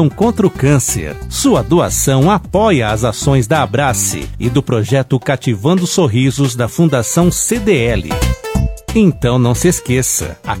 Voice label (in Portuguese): SPOT TROCO SOCIAL; ofertas; shopping; ROSARIO